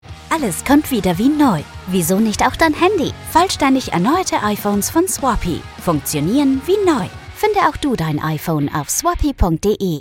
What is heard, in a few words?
female german commercial; Swappie; Austrian German